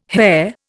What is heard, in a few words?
"hare", Indian